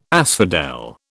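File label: "asphodel", British English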